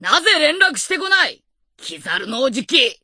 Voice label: voice, dubbing